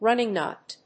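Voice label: "running knot", English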